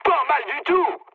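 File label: French trick mood med; War Thunder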